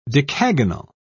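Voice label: "decagonal", English, British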